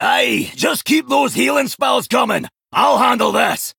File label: Male Dwarf